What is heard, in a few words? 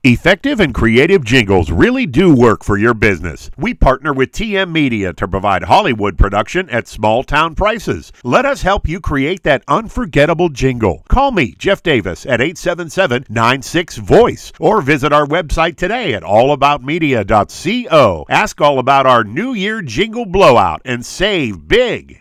Jingle Read